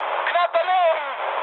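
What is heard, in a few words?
German bomb failure mood high, speech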